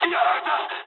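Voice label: aircraft damaged mood low, speech japanese; War Thunder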